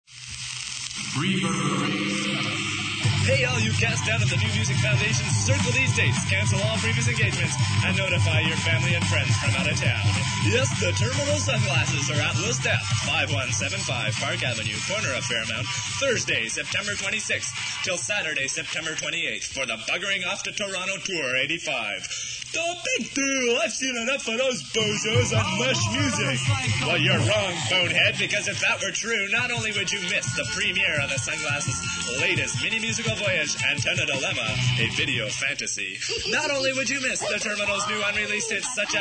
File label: Radio Ad